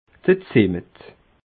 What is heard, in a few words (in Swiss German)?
"zimmet", alsace